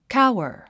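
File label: "cower", English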